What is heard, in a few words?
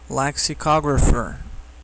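"lexicographer", English; pronunciations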